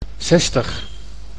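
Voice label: "zestig", Dutch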